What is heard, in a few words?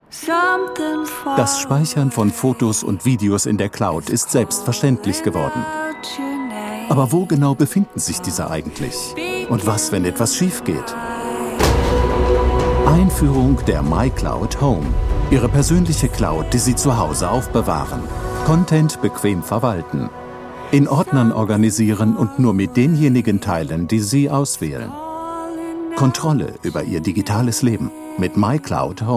commercial, German, male